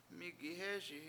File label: "MIIgihEESi", Washo; are you looking